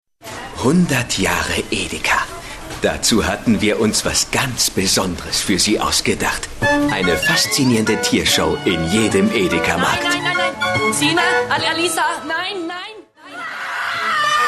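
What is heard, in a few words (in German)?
female Fremdtake Freundlich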